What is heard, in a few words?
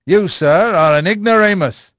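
English male; Pirates Of The Caribbean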